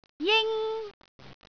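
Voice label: "jing1", Cantonese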